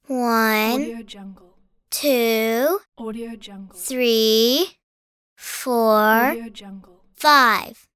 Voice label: child counting to five